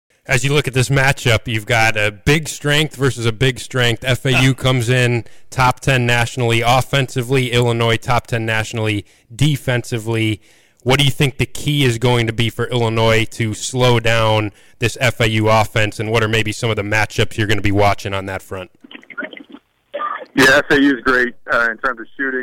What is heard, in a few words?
radio